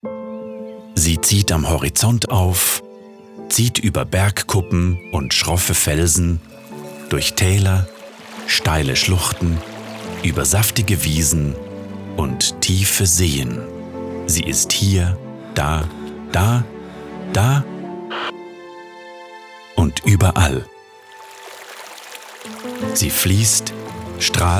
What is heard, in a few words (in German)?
OFF CH DE